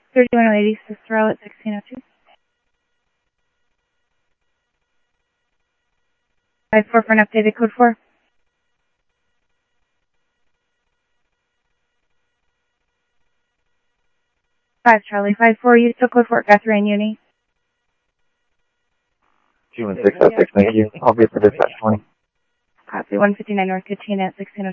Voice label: Mesa Simulcast, MESA PD, scanner audio